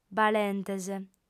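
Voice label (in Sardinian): "balentes", female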